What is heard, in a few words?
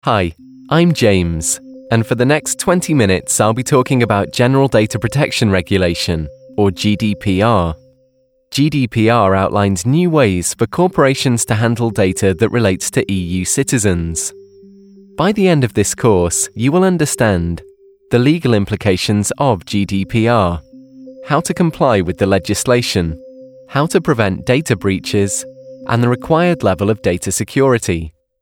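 Professional, male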